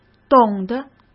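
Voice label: "懂得", Mandarin Chinese; chinese flashcards; hsk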